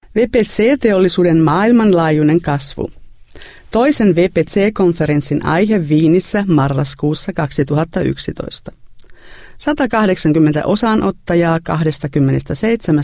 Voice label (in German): Finnland W3, voiceactors